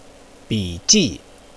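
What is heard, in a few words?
"biji", Mandarin Chinese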